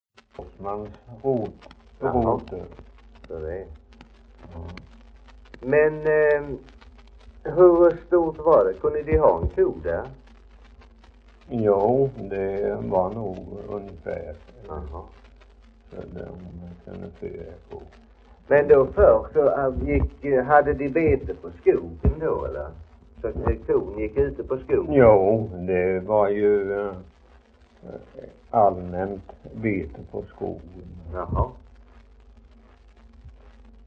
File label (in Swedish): Idala, dialekter; svenska sagor; Folkminnen